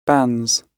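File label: "bans", British English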